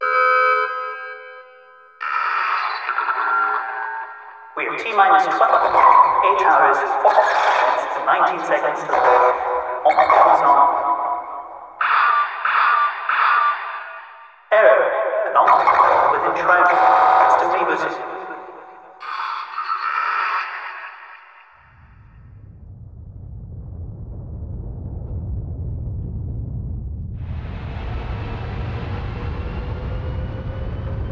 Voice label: Announcement